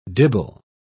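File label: "dibble", English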